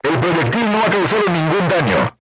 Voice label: voice message armor not pierce, crew tanks spanish